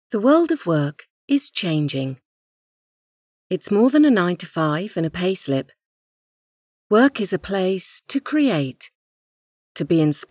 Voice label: Studio Sound

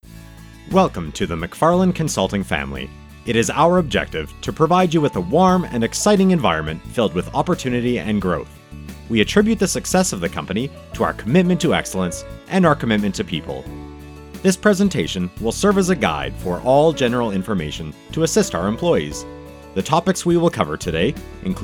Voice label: Corporate Narration

